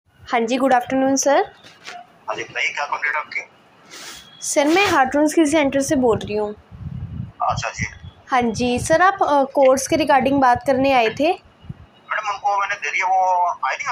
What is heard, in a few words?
call recording